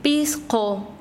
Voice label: "pajaro", Quechua Chanka